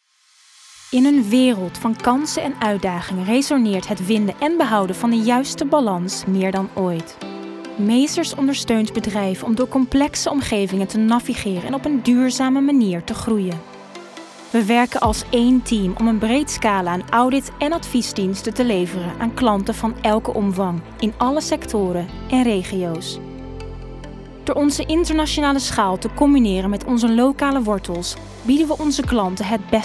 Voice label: corporate Dutch